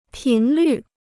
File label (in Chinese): "频率", Chinese